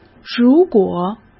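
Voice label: "如果", Mandarin Chinese; chinese flashcards; hsk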